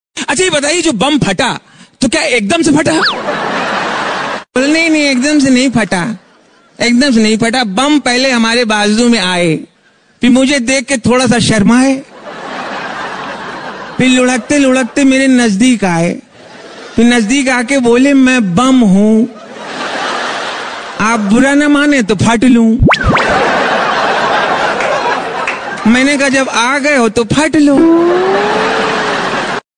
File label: Funny Comedy